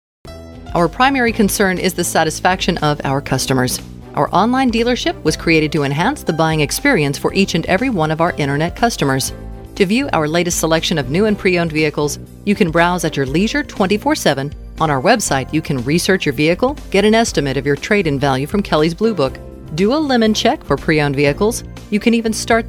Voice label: auto dealership, female voices; audio on hold

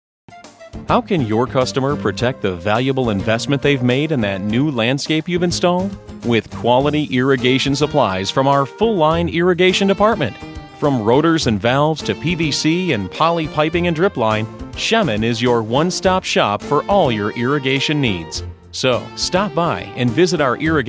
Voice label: landscape company, male voices; audio on hold

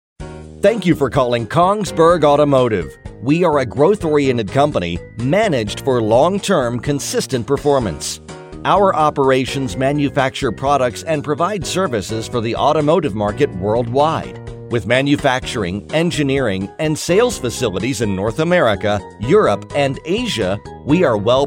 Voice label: automotive parts, male voices; audio on hold

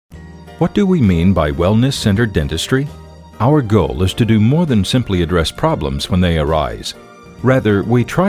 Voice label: dental office, male voices; audio on hold

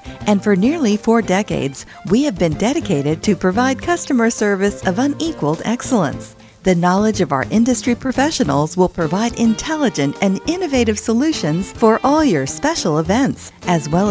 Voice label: party rental, femalevoices; audio on hold

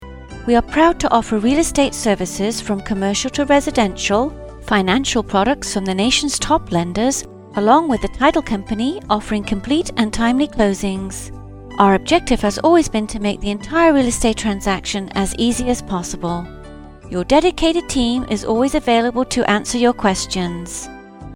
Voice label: realtor, british voices; audio on hold

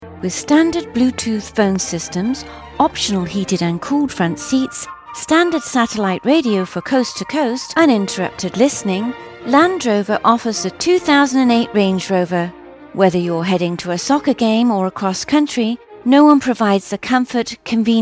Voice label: auto dealership, britishvoices; audio on hold

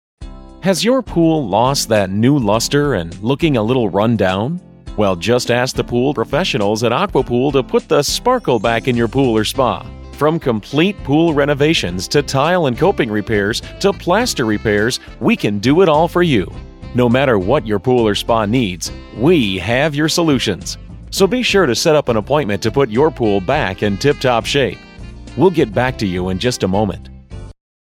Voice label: pool company, malevoices; audio on hold

